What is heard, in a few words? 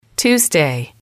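"tuesday", English, female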